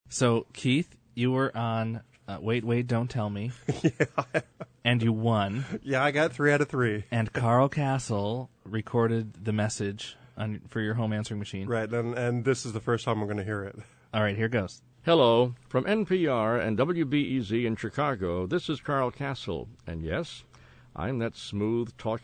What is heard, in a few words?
voicemail